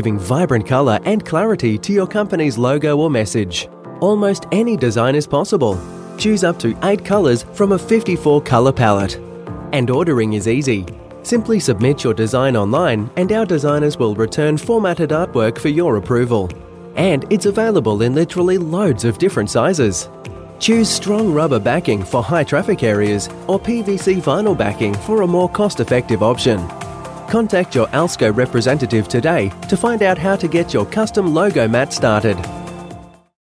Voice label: young aussie corporate

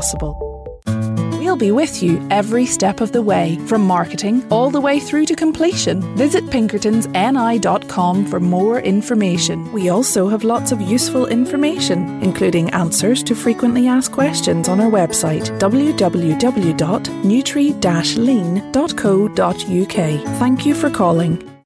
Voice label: northern irish voice telephone

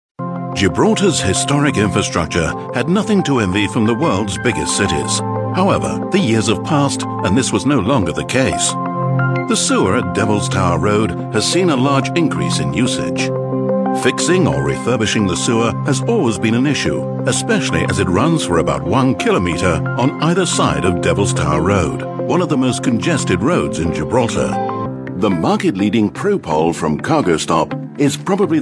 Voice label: corporate

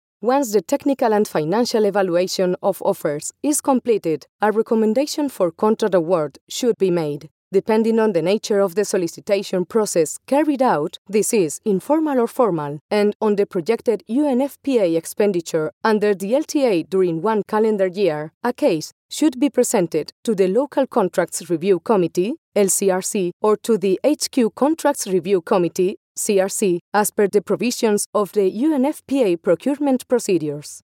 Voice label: spanish voice english elearning

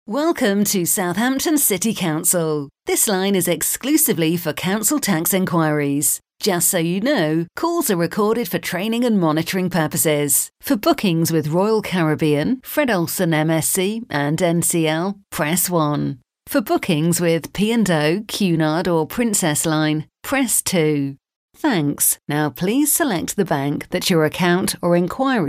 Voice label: on-hold

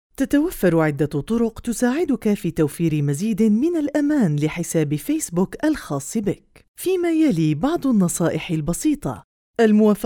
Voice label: arabic female elearning

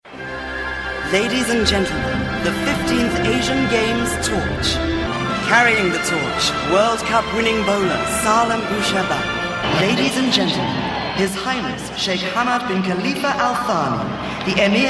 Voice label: games ceremony announcer; doha

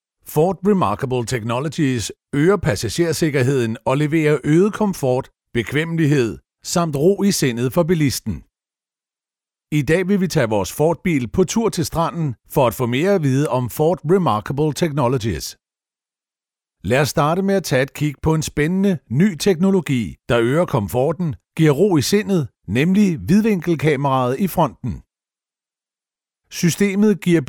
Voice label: danish voice corporate

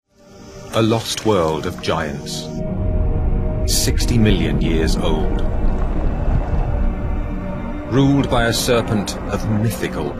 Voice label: documentary longest snake

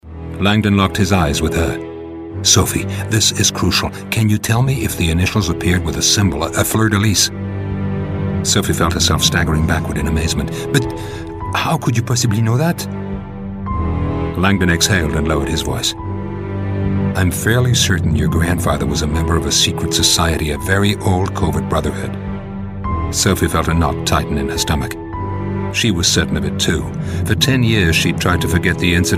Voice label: audiobook

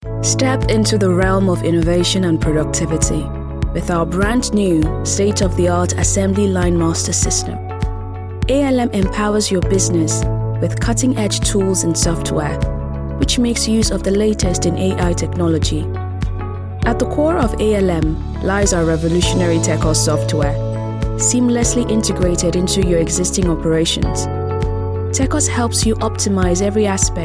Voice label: african female explainer

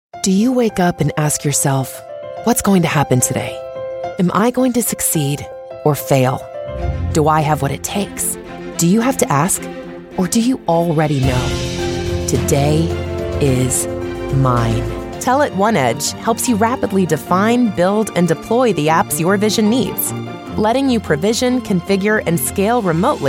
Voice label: american narration

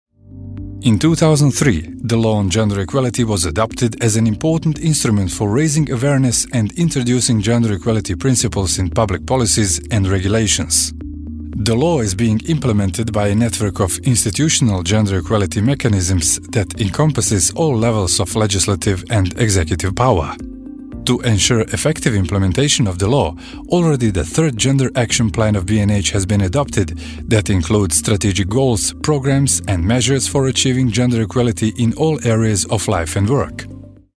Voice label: bosnian voiceover english with balkan accent

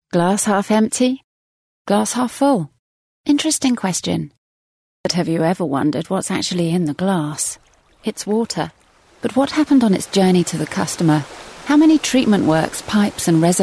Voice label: corporate

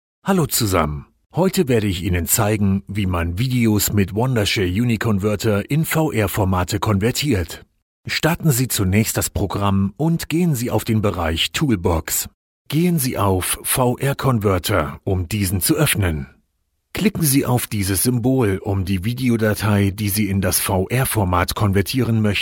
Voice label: german voice system announcements

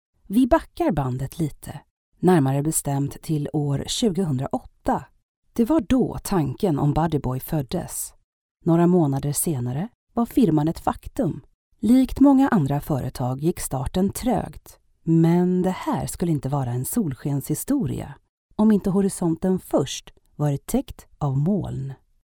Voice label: swedish female corporate

